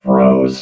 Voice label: "froze", robot vox